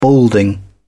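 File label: "balding", British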